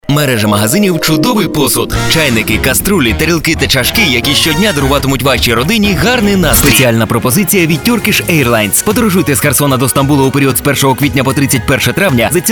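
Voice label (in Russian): голоса, Ukrainian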